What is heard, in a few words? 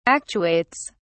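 "actuates", English, British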